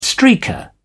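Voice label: "streaker", English, British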